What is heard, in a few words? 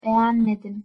"begenmedim", Turkish